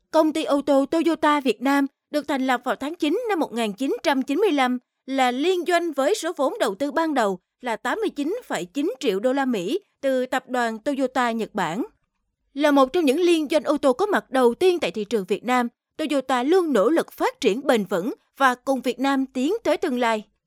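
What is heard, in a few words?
Vietnamese female voice over, sales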